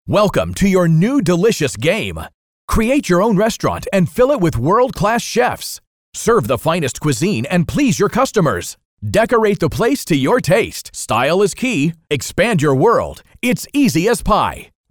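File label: American English, male, voice over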